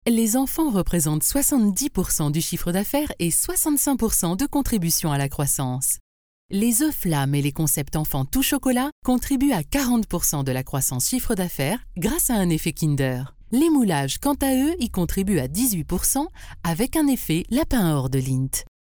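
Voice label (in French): French, female, commercial; voice demos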